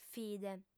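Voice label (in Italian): "fide", lingua sarda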